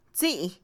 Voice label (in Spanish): Mixe, Mujer; calabaza; INALI